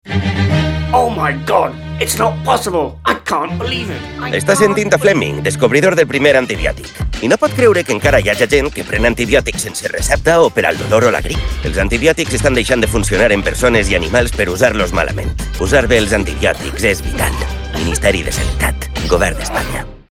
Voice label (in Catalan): CUÑA Valenciano; cuña de radio; Usar bien los antibióticos